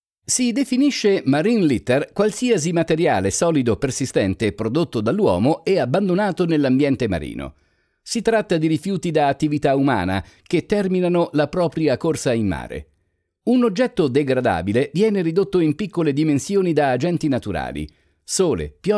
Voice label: Italian voiceover training

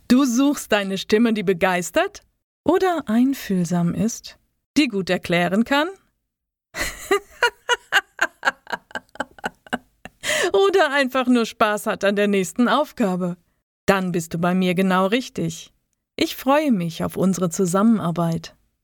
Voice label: German Voice Over

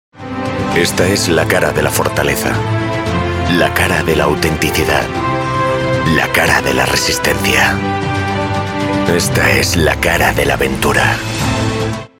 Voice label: Spot TV Epic Voice

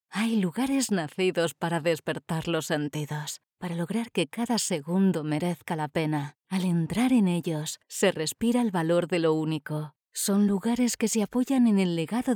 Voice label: warm sincere introduction

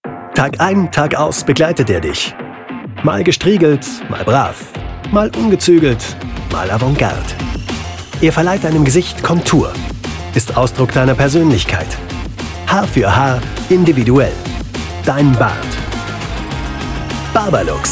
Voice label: German voiceovers Energetic Motivation

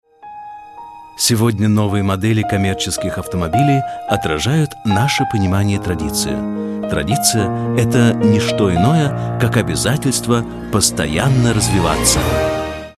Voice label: Russian voice over warm calm

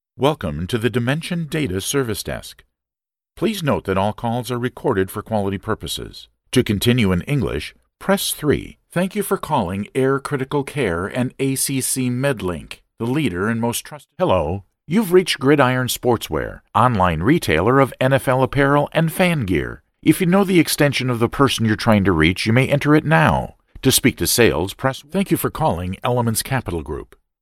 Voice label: American English Voiceover

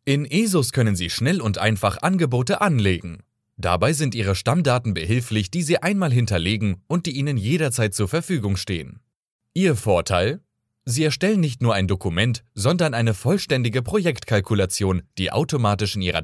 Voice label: German voiceover

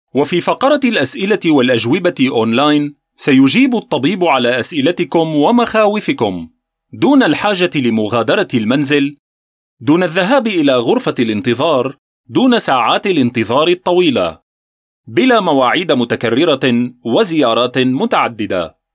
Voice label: Arabic Voiceover

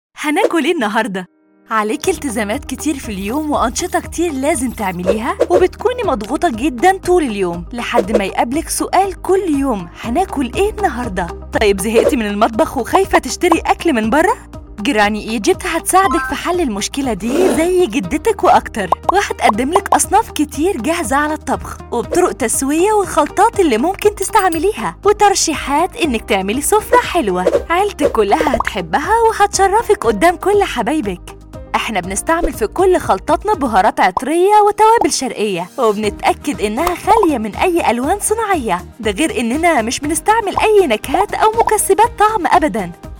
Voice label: Egyptain Accent Advertisng

